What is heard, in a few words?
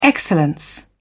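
"excellence", British English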